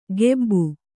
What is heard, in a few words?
"ಗೆಬ್ಬು", Kannada